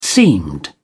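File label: "seamed", British English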